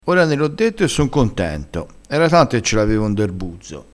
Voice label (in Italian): "n der", crespinese, vocabolario dialettale